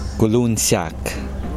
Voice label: "bark", Kosraean

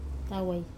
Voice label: "tawai", Wamesa

